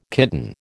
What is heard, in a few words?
"kitten", American